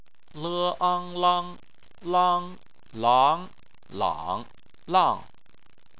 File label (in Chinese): "lang", Chinese